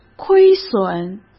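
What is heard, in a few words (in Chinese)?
"亏损", Mandarin Chinese; chinese flashcards; hsk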